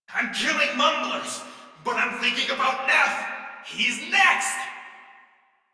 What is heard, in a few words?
Rally Cry; Warframe; The Index Quest; Colonists; Grent